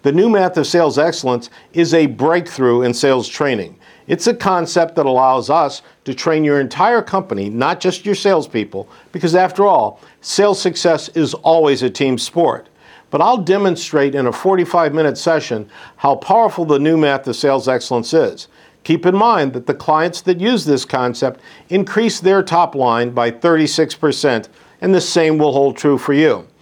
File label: MALE VOICE, narration